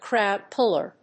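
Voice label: "crowd puller", English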